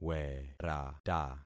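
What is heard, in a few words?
"werata", concatenated syllables; Affixing experiment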